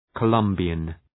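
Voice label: "Colombian", English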